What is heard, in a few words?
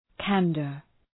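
"candour", English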